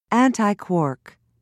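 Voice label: "antiquark", British English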